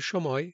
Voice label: Male